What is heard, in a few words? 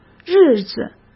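"日子", Mandarin Chinese; chinese-flashcards; hsk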